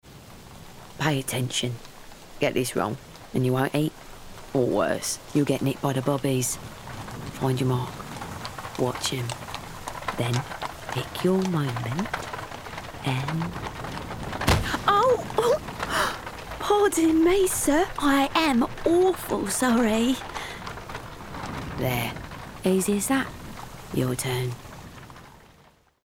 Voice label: Villian East End

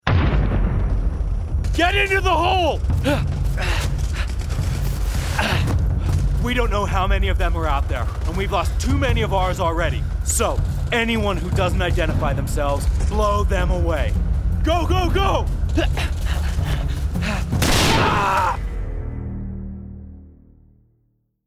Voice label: Gaming Soldier US

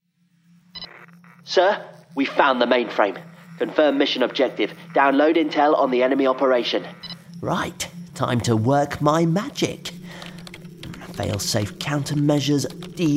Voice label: Character Scifi Computer Geek RP

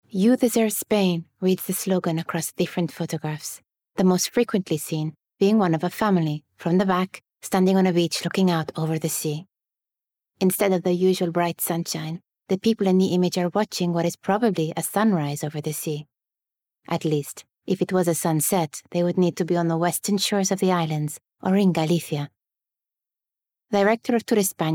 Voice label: Spanish Accented English

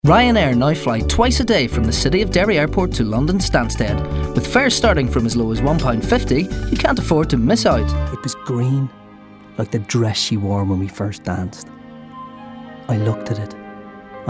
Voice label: Commercial Showreel

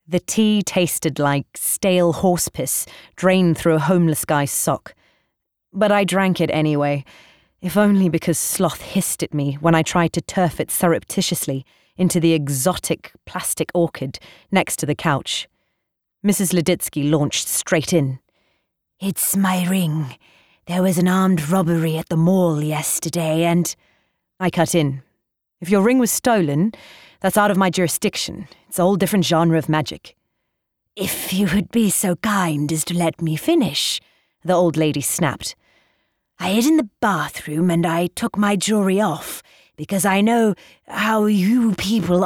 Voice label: AUDIOBOOK; Zoo City

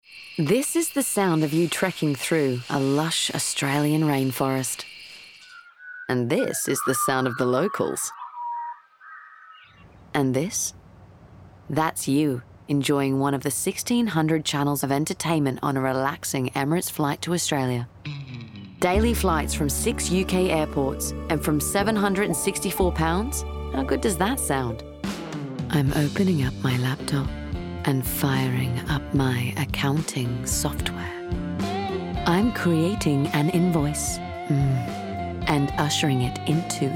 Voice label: Commercials Montage; Actress

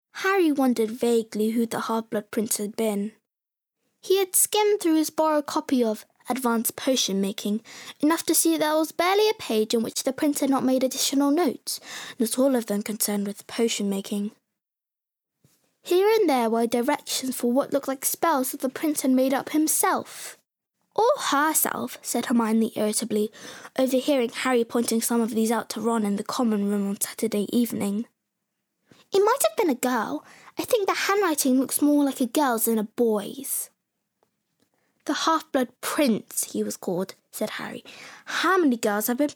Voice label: Bookread; HarryPotter

